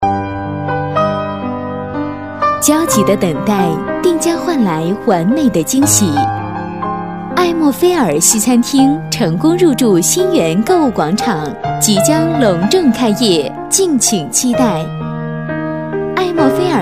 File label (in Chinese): 女 年轻甜美, 广告促销 小资优雅 西餐厅; 国语女声配音